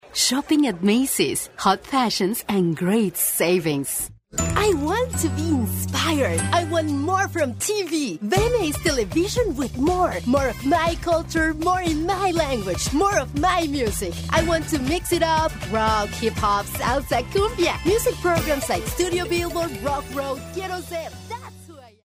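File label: Spanish Latin America female English; spanish americas voice over talent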